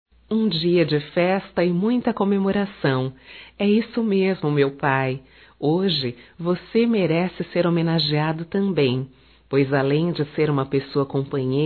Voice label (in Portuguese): Dia das Mães Feminino; Dia das Mães Pai como Mãe Feminino